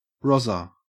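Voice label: "rozzer", Australian English; pronunciations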